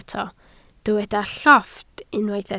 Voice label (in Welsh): "llofft", Welsh